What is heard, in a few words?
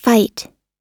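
"fight", US English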